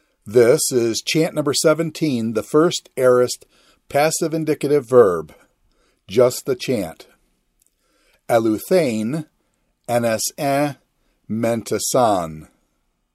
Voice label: Quick Chant First Aorist Passive Ind luw